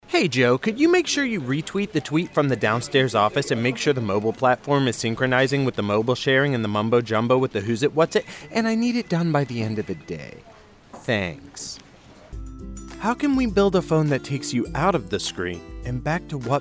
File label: voiceover commercial